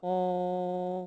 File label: enhanced speech